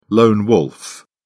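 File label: "lone wolf", British English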